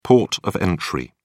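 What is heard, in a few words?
"port of entry", British English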